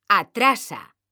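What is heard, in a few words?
"atrasa", Spanish, female; mekanta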